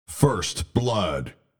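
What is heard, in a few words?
first blood, Video Game Announcer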